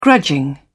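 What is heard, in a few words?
"grudging", British English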